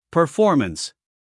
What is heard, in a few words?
"performance", US male; 1000 hours